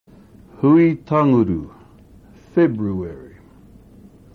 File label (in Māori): "hui tanguru", maori words